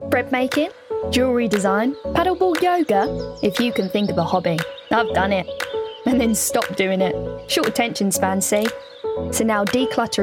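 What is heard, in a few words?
English UK, female, commercial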